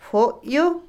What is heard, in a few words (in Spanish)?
estiércol, Otomí, mujer; INALI